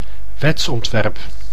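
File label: "wetsontwerp", Dutch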